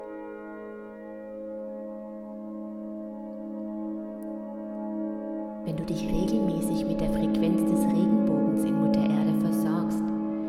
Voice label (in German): Meditation Erdung und Pranaroehren Atmung mit Musik